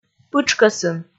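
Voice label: "пытшкӧсын", Komi, female; kpv audio dict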